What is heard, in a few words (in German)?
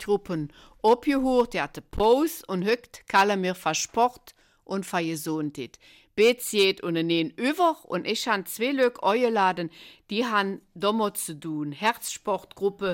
Eifeler Mundart; Herzsportgruppe St Vith